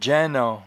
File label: "dgeinant", patois jura